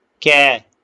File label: short voyels arabic alphabet